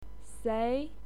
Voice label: "sei2", pinyin